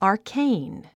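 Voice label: "arcane", English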